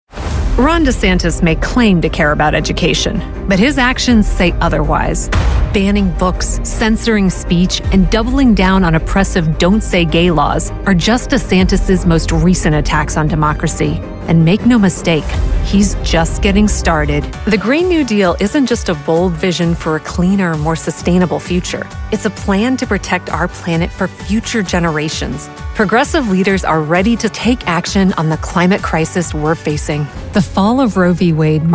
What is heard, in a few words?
english american political ads